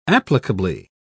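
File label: "applicably", British English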